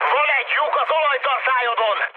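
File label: Hungarian damaged oil mood high, speech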